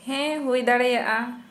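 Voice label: Santali, female, possibly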